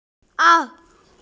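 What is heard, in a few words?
"ke3ai4", Mandarin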